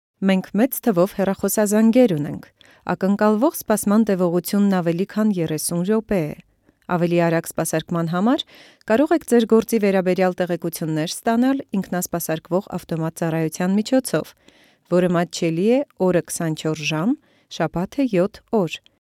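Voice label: Armenian narration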